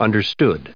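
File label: "understood", English, US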